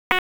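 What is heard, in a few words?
Dispatch 1, P25; WSO DISP 1; wake p25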